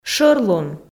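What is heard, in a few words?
"шӧрлун", Komi, female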